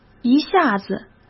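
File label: "一下子", Mandarin Chinese; chinese-flashcards; hsk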